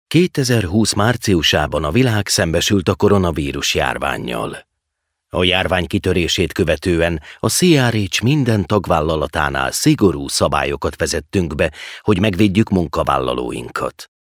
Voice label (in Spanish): Masculino